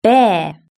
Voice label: "bear", british english

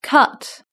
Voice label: "cut", British English

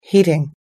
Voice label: "heating", us english

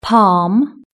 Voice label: "palm", british english

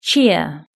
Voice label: "cheer", british english

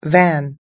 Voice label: "van", US English

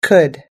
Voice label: "could", us english

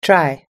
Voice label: "try", US English

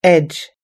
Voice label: "edge", us english

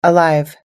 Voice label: "alive", us english

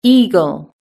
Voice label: "eagle", british english

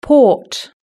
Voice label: "port", british english

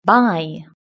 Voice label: "buy", british english

